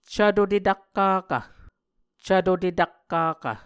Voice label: "cvto tetakkakv", muscogee; Mvskoke Words with Voicing Consonants; Martin, Jack B., and Margaret McKane Mauldin. 2023. A Dictionary of Creek/Muskogee